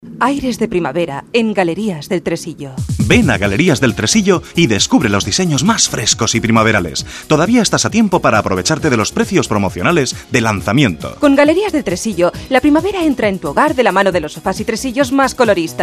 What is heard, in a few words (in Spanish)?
Publicidad Radio voces comerciales musica; Galerias del tresillo